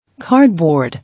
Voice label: "Cardboard", English